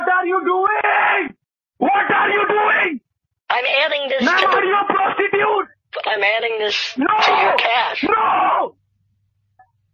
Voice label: angry indian scammer